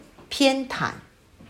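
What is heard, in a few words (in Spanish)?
"偏袒", chino mandarin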